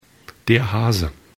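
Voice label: "hase", German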